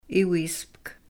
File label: "iwiispk", sahaptin